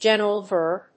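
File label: "general verb", English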